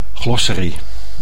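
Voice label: "glossary", Dutch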